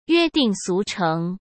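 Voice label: "约定俗成", Mandarin Chinese; hsk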